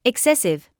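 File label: "excessive", British English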